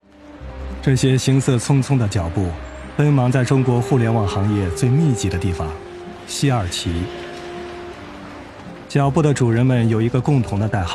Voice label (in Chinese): 标准音开篇; 码农的异想世界